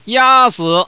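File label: Man, "beat you"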